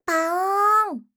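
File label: "paoonn", Japanese; doubutsu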